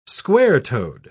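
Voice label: "square-toed", British English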